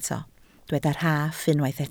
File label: "rhaff", Welsh